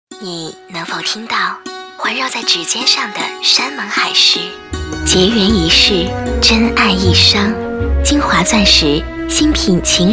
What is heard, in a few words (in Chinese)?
广告 珠宝首饰 钻石 优雅; 京华